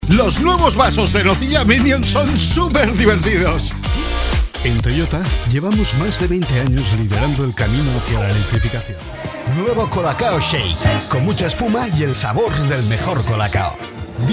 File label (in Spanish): spots comerciales, voces en off